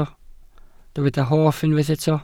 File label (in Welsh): "hoff", Welsh